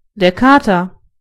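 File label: cat, German voices words; gcompris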